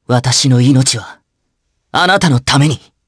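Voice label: Vox Victory, Japanese; Theo; kingsraid audio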